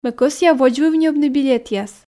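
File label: Komi sentences, female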